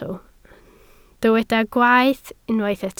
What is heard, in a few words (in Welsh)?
"gwaeth" Welsh